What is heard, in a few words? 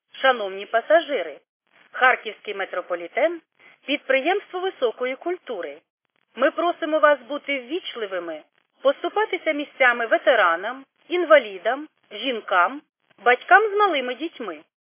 khs st female, subway station